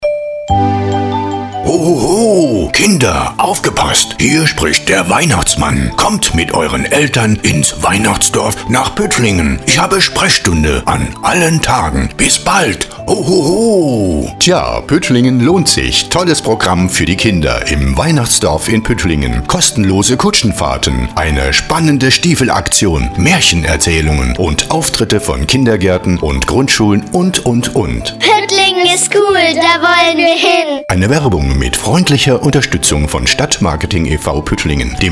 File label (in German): Reminder zu Spot; Sponsoren; Volksbank West